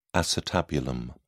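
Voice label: "acetabulum", British English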